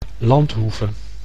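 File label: "landhoeve", Dutch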